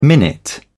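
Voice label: "minute", British English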